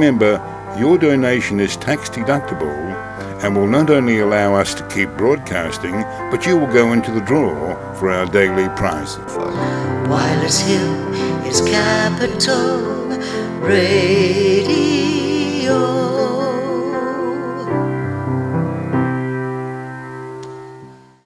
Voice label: Radiothon promo; interviews